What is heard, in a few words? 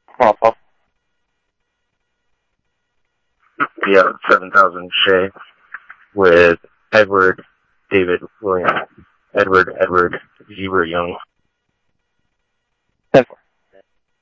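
police department, scanner audio trunk; SCTSDLE PD - PD2 ScottsdalePolice; ThompsonPeakHigh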